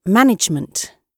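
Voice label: "management", British English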